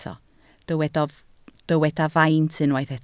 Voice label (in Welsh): "faint", Welsh